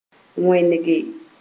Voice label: "wẽnege", Moore

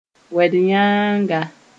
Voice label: "wed-yãanga", moore

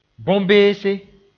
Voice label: "bõn-beese", moore

